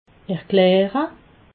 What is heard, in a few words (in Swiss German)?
"erklare", alsace